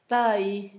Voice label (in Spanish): pitaya, Zapoteco, Asunción Ocotlan; Comestibles; INALI; Haspelmath